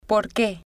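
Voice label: "por que", Spanish, female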